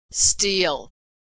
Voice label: verb "steal", pronunciation; steal steel